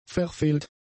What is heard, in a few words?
"verfehlt", German; adjectives